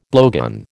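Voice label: "blowgun", American English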